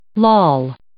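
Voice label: "loll", English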